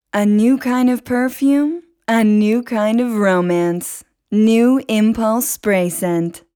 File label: Bajada, Ingles (USA)